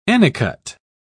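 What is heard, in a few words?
"anicut", British English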